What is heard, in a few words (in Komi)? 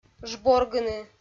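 "жборгыны", Komi, female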